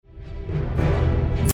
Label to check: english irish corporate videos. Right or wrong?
Right